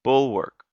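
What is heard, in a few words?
"bulwark", US English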